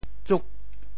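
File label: "tsuk8", Teochew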